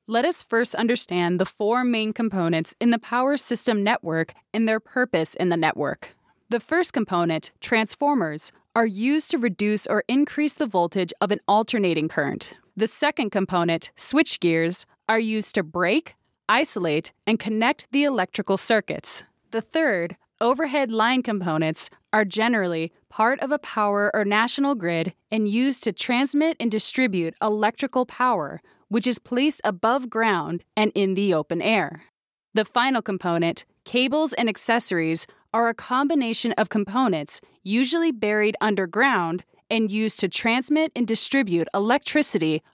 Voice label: female english usa e-learning